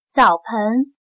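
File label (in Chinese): "澡盆", Chinese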